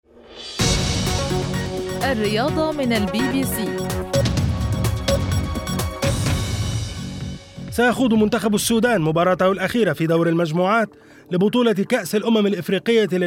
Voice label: arabic sports